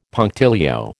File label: "punctilio", American